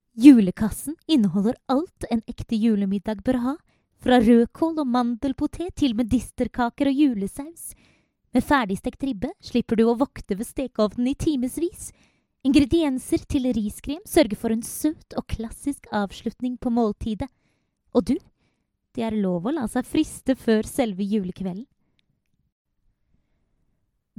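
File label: Norwegian, General; GoLocalise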